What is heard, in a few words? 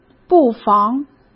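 "不妨", Mandarin Chinese; chinese-flashcards; hsk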